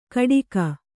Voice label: "ಕಟಿಗ", Kannada